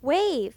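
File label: "wave", English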